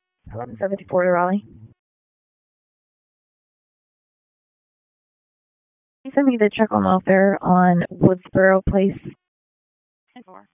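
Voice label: Raleigh Police Southeast District Dispatch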